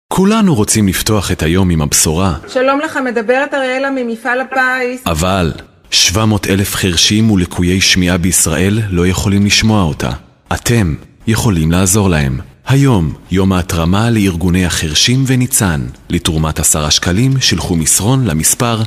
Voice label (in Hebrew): קריינות; ניצן